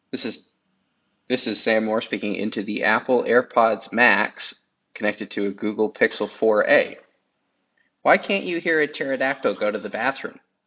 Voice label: Airpods Max Pixel 4a mic sample